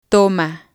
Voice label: "toma", Spanish